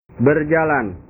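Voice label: "berjalan", Indonesian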